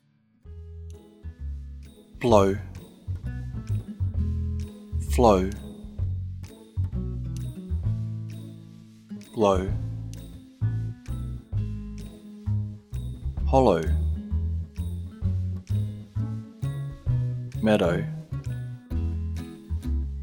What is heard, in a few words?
Words ow, diphthong əʊ as in home; spelling variation ow